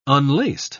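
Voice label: "unlaced", English, British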